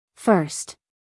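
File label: "first", American English, female; everyone-can-use-english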